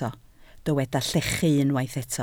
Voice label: "llechu", Welsh

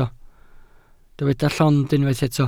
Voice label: "llond", Welsh

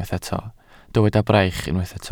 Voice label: "braich", Welsh

